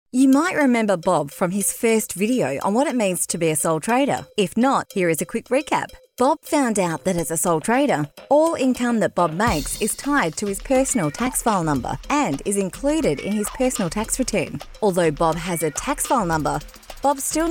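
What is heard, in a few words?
English Australian F Explainer, accent of australia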